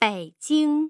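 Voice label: "Beijing", Mandarin Chinese tone pair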